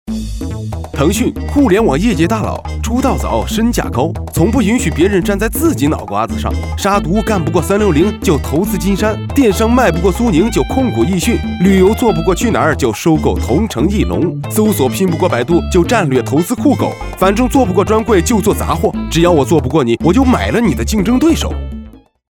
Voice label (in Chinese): 男; 飞碟说MG